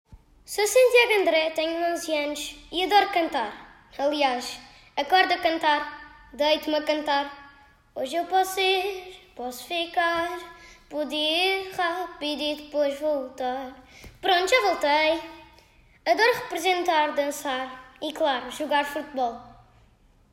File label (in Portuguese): Voz PT